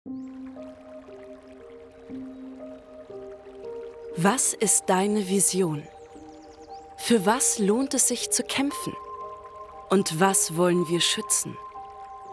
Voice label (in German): Imagefilm